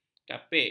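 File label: "kapik", Malay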